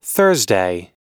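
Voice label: "Thursday", US English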